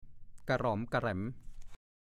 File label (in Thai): "กะหร็อมกะแหร็ม", ภาษาโคราช